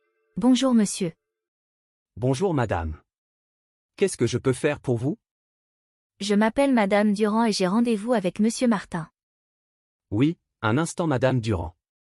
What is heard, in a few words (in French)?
Podcast dialogues Donner des information personnelles; Passionnément Français; Voici un épisode de niveau débutant (A1)